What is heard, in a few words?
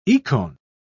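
"ikon", English, British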